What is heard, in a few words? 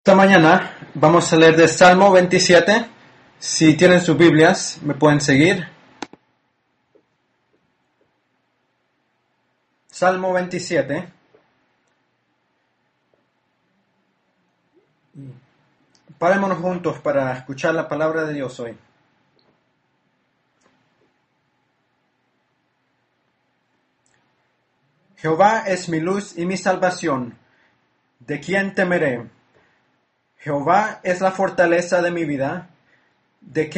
Spanish; FathersHouse